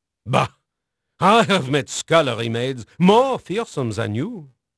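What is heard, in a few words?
French male, voice; Pirates Of The Caribbean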